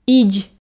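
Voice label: "идз", Komi, female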